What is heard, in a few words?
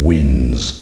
"wins", announce; mk2